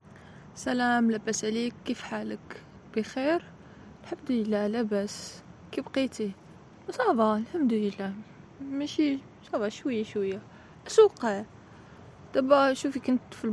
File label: arabic speech